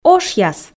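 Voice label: "ошъяс", Komi, female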